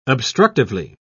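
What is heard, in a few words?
"obstructively", British English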